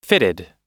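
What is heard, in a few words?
"fitted", US English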